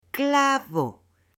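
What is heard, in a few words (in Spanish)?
"CLAVO", Spanish, female; AppLenguaje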